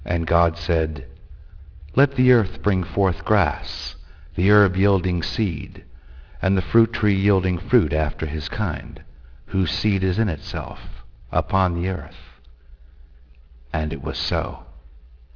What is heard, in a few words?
narration